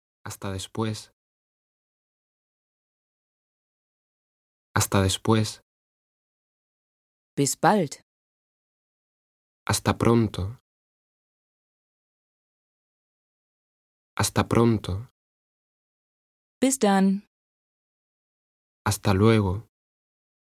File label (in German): AusspracheTrainer Spanisch; Reise KnowHow Kauderwelsch